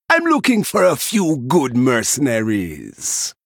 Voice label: Male Troll Play